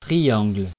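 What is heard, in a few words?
"triangle", French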